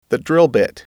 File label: drill Bit, englishAudio